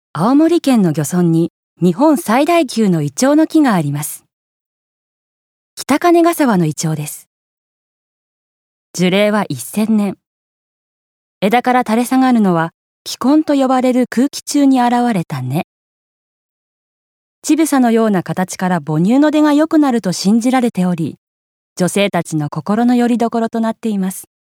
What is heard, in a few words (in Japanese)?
紀行ナレ